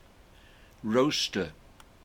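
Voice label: "rocester", English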